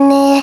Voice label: "ne" C#5; 音MAD; Slow Start; 玉手